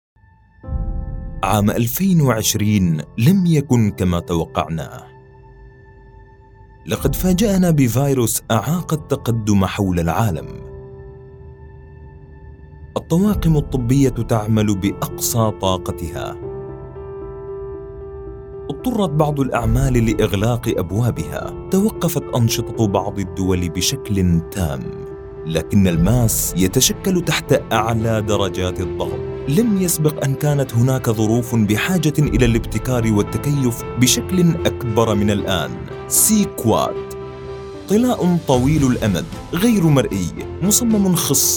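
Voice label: Corporate Standard Arabic, male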